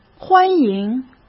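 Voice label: "欢迎", Mandarin Chinese; chinese-flashcards; hsk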